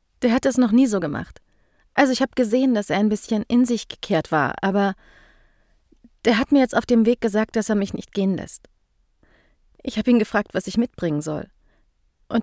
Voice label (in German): OVERVOICE Erntehelferin Frau